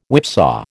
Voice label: "whipsaw", American